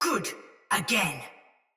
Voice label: Attack Normal Soldier Mace Agent Female; Battle; Sinecure Melee